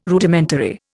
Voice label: "Rudimentary", Indian